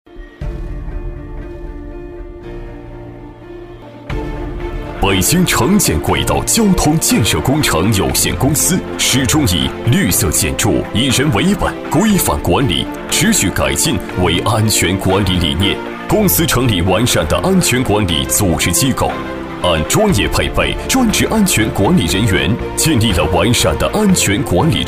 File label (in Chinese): 男 大气浑厚; 北京城建